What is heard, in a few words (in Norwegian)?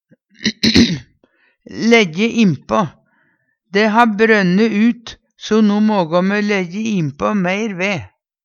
"læjje innpå", Numedalsmål